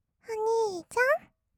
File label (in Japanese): "おにいちゃん？", kawaii voice; ASMR; 二人称; 1200 kawaii voice!!